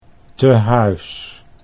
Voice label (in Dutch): "tehuis", Dutch